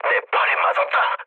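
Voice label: Korean damaged pilot mood low, speech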